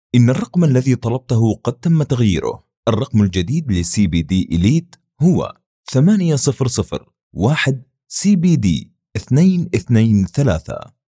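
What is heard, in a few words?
IVR Standard Arabic, male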